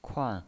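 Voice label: "kuan", pinyin, Mandarin Chinese, fourth tone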